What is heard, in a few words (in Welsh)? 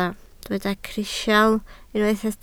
"crisial", Welsh